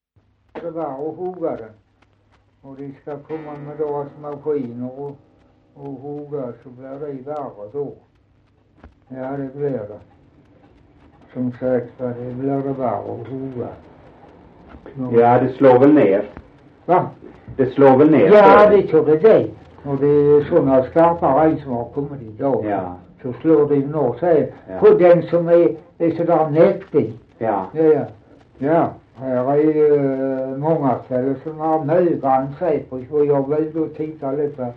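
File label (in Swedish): Dalby Skåne, dialekter; Folkminnen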